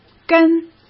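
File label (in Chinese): "根", Mandarin Chinese; chinese flashcards; hsk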